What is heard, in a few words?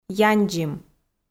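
"яндзим", Komi, female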